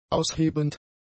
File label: "aushebend", German; adjectives